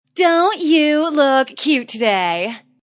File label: Wmn Sys Dnt U Lk Ct Emph, Woman Says Don't You Look Cute Emphatic; Talking Sound Effects